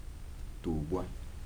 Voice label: "dogs", Kibembe

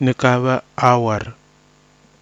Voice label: "nɨkava auar", Kwamera; n. ordinary kava

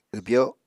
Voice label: "wbyo", Apatani

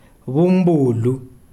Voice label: "egg", Kalanga

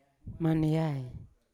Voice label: "maneai", Yawa; slow; unat

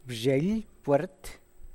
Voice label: The door opened, Zapotec; Bzhyeily pwert.

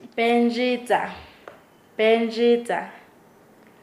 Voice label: "envejercerse", Nasa Yuwe; paez

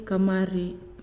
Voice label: "Cold", Naasioi

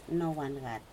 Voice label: pandanus fruit, Aneityum

